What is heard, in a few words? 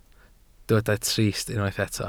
"trist", Welsh